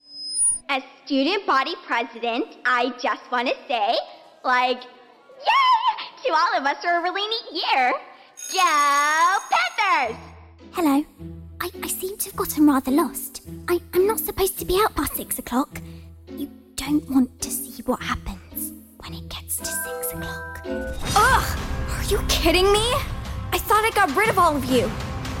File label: Character